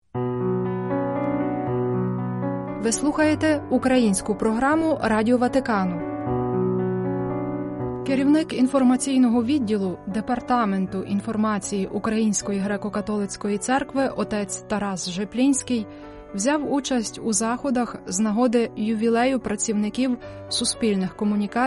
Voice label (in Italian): ucraino; vaticannews